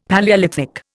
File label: "Palaeolithic", Indian; Dictionary